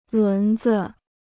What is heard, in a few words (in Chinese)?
"轮子", Chinese